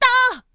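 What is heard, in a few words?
"ta", boy; shonen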